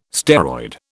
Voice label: "steroid", British